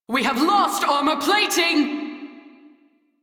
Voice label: Crew Ship Low, Female; Dialog; en